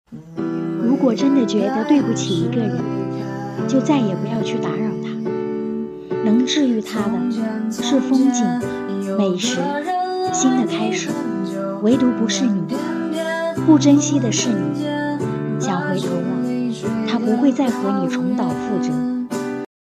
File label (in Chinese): 情感 独白